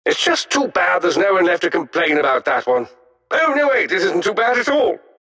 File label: announcer victory; dlc defensegrid